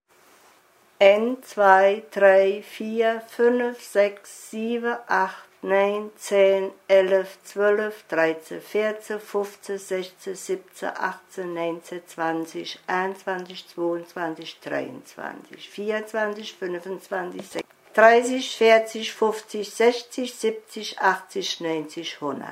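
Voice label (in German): zahlen, Hunsrück; Völkenroth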